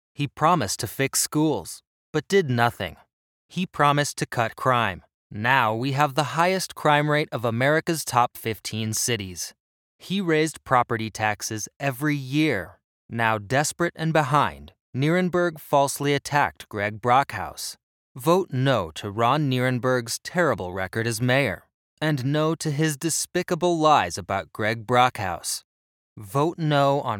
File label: Mayoral Ad